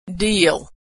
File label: verb "deal", pronunciation